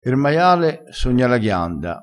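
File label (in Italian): "er maiale", vocabolario dialettale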